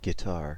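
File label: "guitar", US English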